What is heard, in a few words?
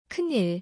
"geunil", Korean